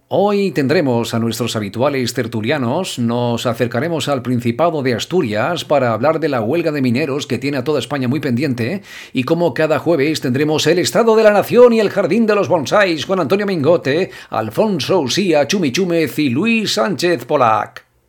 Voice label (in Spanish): locutor imitador; imitación de Luis del Olmo